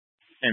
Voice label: Fire Dispatch